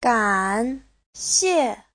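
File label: "gǎnxiè", Mandarin Chinese; Giáo trình Hán ngữ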